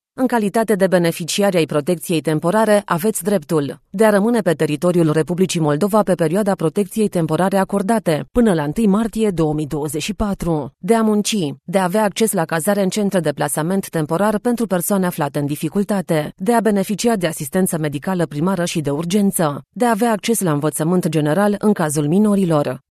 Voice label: romanian corporate videos

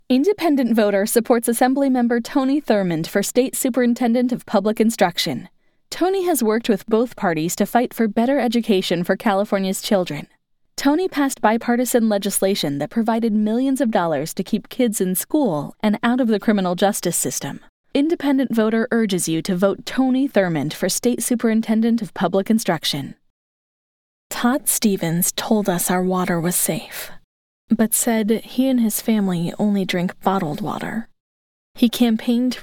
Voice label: english american political ads; Inglés (Estados Unidos)

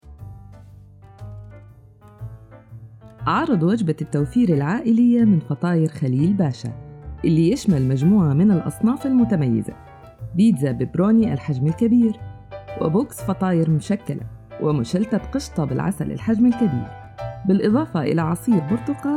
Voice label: arabic commercial

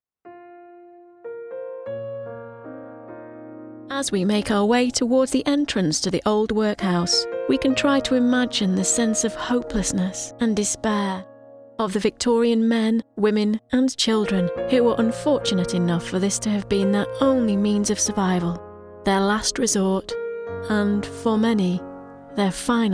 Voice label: english british documentaries

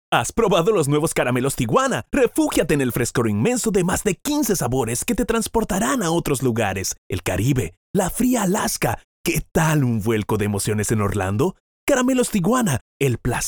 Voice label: spanish latin american commercial

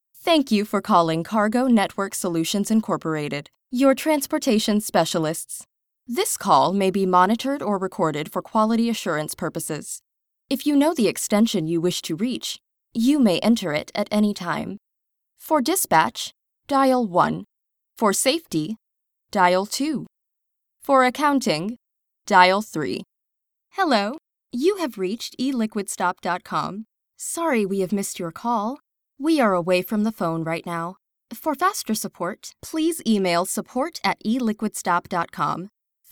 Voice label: english american ivr

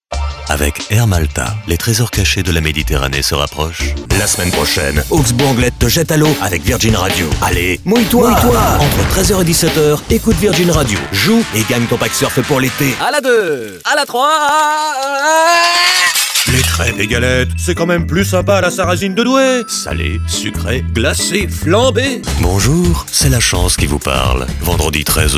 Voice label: french commercial

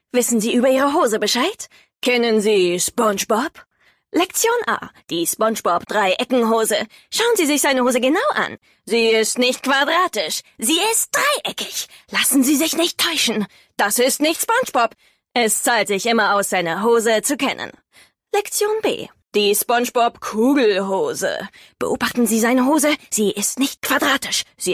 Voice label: german tv shows

